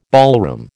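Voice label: "ballroom", American English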